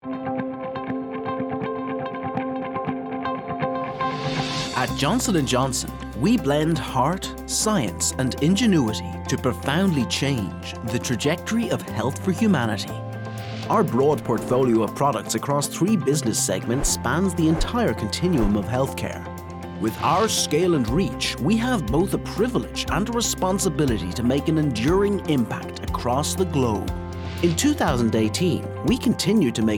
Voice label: male english irish neutral irish accent commercial; irish southern; johnson and johnson